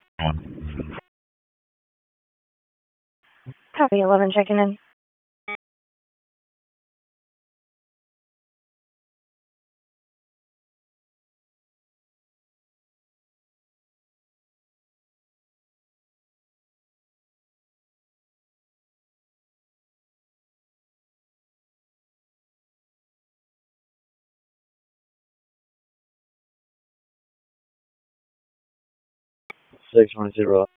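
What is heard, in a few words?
Raleigh Police Southwest District Dispatch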